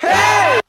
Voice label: "hey", Voice; ooh ahh